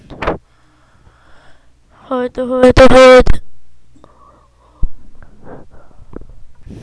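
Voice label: "hold", spoken word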